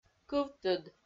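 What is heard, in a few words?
"кывтыд" Komi, female; kpv audio dict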